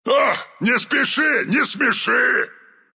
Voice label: move, ru; Dota 2

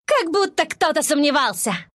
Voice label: win, ru; dota2

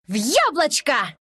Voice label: win, ru; Dota 2

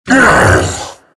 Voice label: morph pain; Dota 2; terrorblade

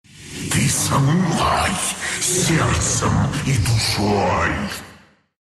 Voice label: attack, ru; Dota 2

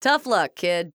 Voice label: Taunt Directed; Paladins; Kinessa; Pip

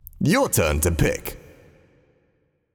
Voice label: Announcer Draft Your Pick

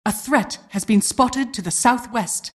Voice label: announcer generic alert; Dota 2

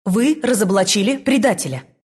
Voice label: adventure alerts, ru; custom adventure

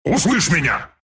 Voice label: attack, ru; dota2

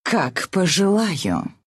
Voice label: move, ru; dota2

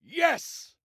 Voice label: Emote Yes; Khan

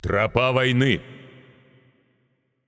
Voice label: Announcer Russian GameMode Warpath

